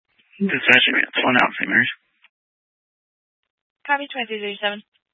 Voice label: Grand Junction Fire Department Dispatch

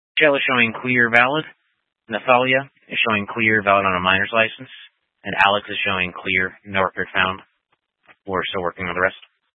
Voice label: Police Department Dispatch; Grand Junction Police Department Dispatch

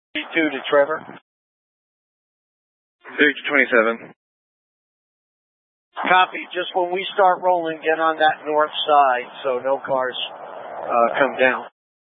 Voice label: Tactical Incidents, scanner

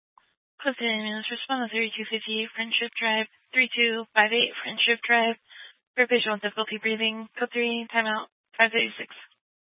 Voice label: Fire Dispatch, scanner

